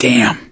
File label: reaction negative, voice; gambler